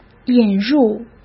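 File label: "引入", Mandarin Chinese; chinese-flashcards; hsk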